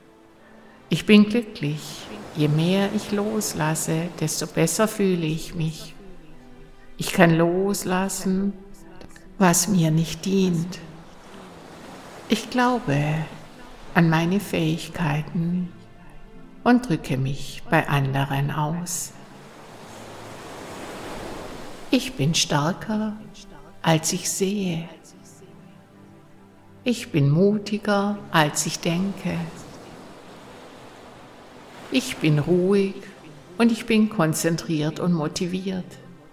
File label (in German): Positive Affirmationen mit Musik